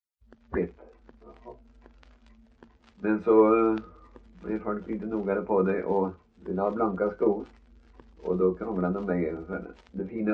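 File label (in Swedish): Värö Halland, Svenska sagor dialekter; Folkminnen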